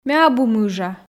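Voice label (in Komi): ме абу мыжа, Komi, female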